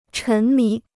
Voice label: "沉迷", Chinese

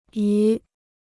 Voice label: "鱼", Chinese

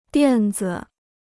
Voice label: "垫子", Chinese

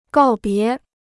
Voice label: "告别", Chinese

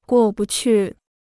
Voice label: "过不去", Chinese

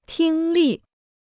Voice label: "听力", Chinese

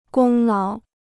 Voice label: "功劳", Chinese

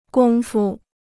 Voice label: "工夫", Chinese

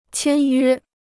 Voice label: "签约", Chinese

